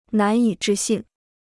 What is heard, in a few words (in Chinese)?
"难以置信", Chinese